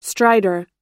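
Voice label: "stridor", English word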